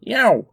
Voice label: dream reader voice

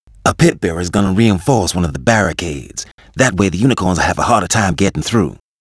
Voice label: Bonus uk; arthur and the revenge of maltazard; Wii

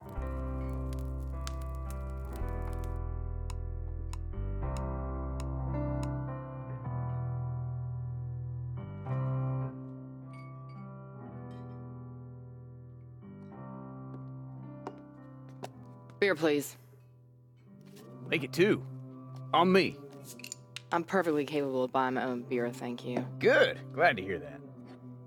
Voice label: dialogue english; inFAMOUS Festival of Blood; ps3

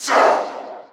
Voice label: Final boss Vox Attack

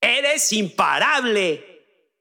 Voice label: Extreme Positive

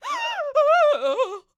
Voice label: Enemy Generic Human Spawn Disbelief

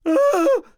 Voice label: Enemy Generic Human Spawn Disbelief

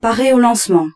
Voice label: French

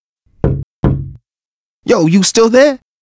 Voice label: Menu uk; Arthur and the Revenge of Maltazard; Wii

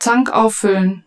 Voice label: German; Plenish

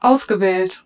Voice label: German, Selected

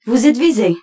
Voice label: French, Lock on

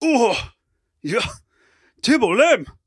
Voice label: Impressed

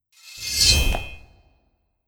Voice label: Slave Taunt Moov

